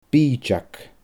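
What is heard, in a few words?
"biideag", Scottish Gaelic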